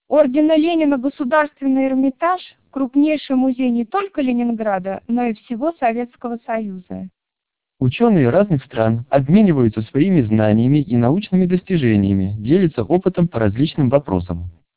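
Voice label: speech TWELP 2400 bps, Russian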